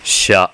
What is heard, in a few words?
"sya", hiragana